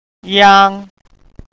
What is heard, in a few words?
"iang" Mandarin